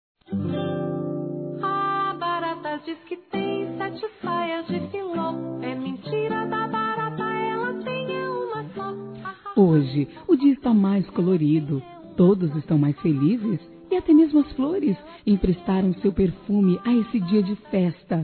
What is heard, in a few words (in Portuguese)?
NEUTRO, Aniversário Infantil; Telemensagens